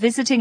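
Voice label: "visiting", Scottish English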